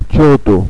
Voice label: "ciotu", Carigliaro